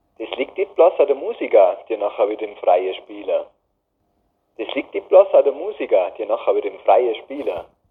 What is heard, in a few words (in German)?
Mundart; tannheimertal